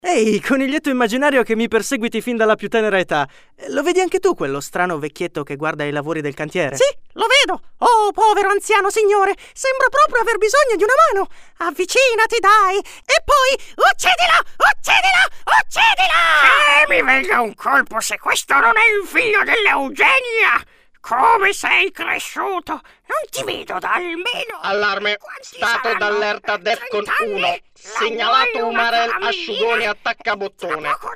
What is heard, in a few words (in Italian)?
caratterizzazione